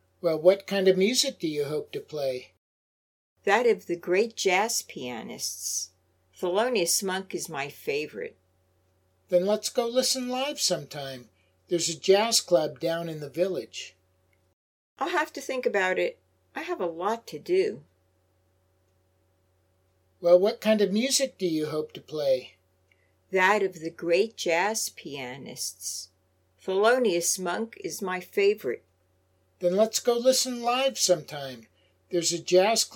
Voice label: Natural Dialog